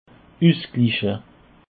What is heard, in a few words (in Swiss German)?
"ussgliche", alsace